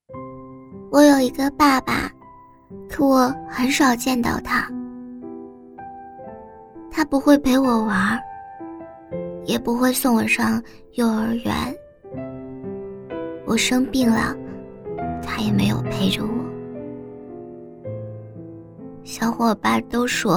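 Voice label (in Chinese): 国语 女声 女童【多种情绪变化自白】 亲切甜美 素人; 我的爸爸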